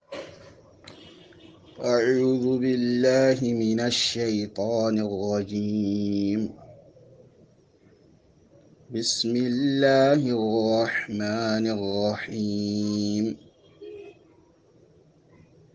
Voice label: My Recitation